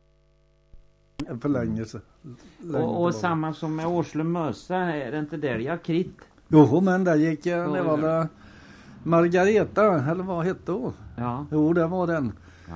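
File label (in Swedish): Mularp Västergötland, dialekter; Svenska sagor; Folkminnen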